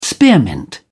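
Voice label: "spearmint", British English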